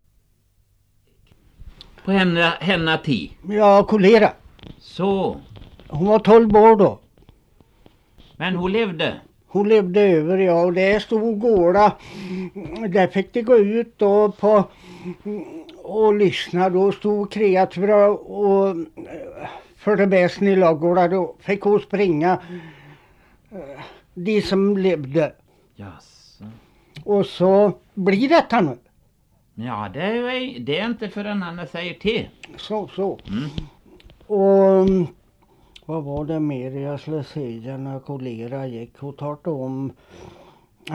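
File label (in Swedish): Sparlösa Västergötland, Svenska sagor, dialekter; Folkminnen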